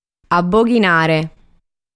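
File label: "Aboghinare", Sardinian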